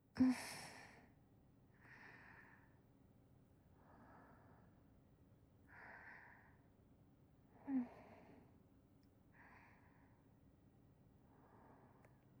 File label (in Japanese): 囁き添い寝